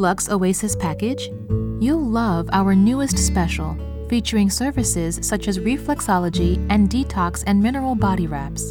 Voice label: Telephony On Hold Sample